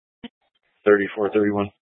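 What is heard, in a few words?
Police Dispatch, Scanner audio recording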